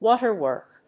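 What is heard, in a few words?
"WATERWORK", English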